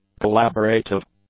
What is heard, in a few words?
"collaborative", English; adjectives